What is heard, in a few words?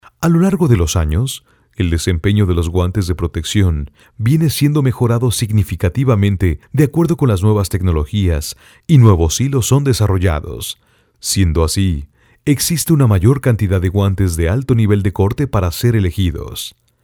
locutor mexico mexican voiceover; Mexican male voice over